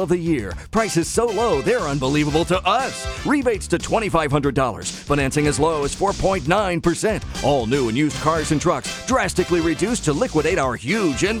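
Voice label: Radio Commercials, Ford Dealer Commercial, male voice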